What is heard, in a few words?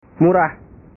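"murah", Indonesian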